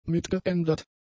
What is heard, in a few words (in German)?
"mitgeändert", German; adjectives